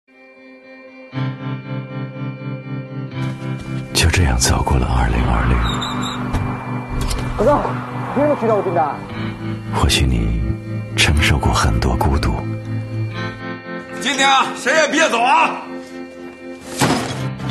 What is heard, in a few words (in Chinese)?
男 春节联欢晚会片花; 江苏卫视